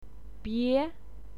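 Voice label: "bie", Mandarin Chinese, second tone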